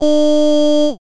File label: child E